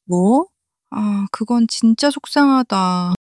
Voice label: sadness, monologue